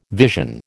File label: "vision", American